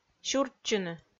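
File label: "сюртчыны" Komi, female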